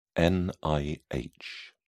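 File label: "nih", British English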